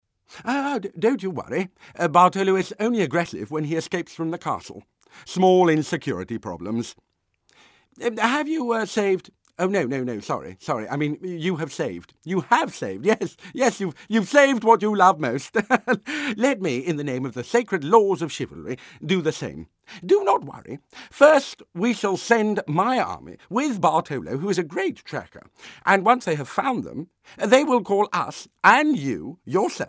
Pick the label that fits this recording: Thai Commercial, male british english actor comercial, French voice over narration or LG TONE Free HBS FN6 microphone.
male british english actor comercial